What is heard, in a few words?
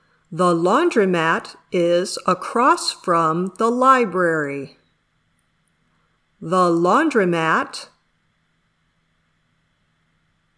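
"dictation", English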